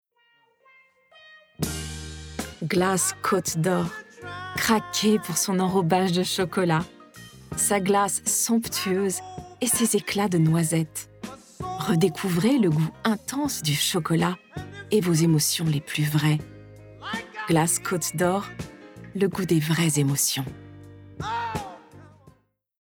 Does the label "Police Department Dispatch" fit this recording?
No